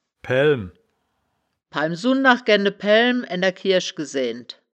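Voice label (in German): "paeaelm", wittlicher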